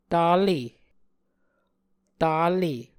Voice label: "tale", Muscogee